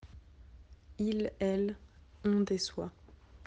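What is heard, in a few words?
"décevoir", French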